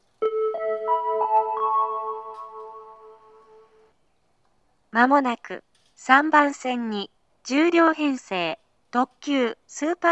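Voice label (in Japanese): 接近放送 10両編成 特急 大宮行きの自動放送です。, 合成音声型 (女性）; 10両編成 特急 スーパービュー踊り子10号 大宮行きの自動放送です。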